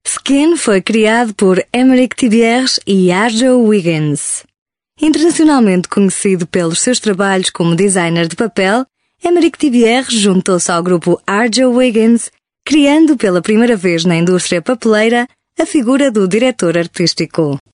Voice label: Corporate European Portuguese F; Skin